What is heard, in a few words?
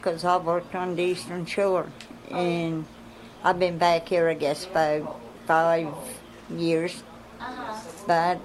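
USA Virginia Tangier Island Southern Woman 68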